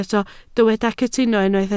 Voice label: "cytuno", Welsh

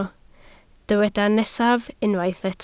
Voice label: "nesaf", Welsh

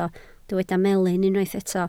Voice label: "melyn", Welsh

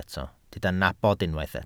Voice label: "nabod", Welsh

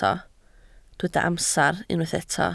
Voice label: "amser", Welsh

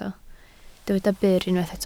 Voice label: "byr", Welsh

